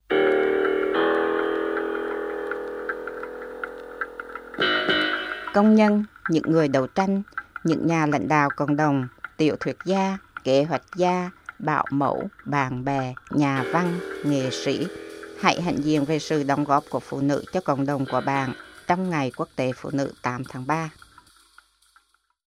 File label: Vietnamese